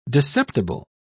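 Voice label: "discerptible", English, British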